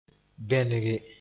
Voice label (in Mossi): "bẽnege", moore; coat lightly with glue